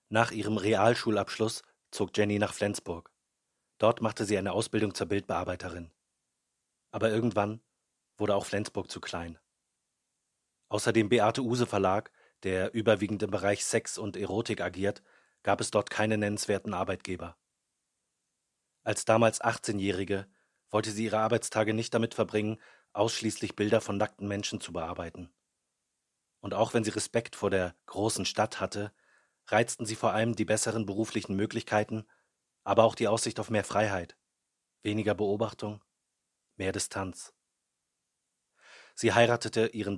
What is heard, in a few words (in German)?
Dokumentation; LOFT VOICES